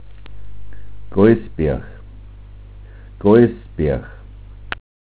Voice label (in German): "gois", dialekt